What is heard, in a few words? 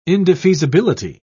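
"indefeasibility", British English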